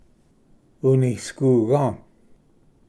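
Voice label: "unisguga", Cherokee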